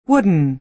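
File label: "wooden", American English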